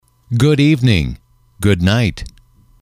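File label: good evening night, English, male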